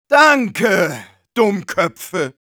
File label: jeers, de; "Danke, Dummköpfe!"; Medic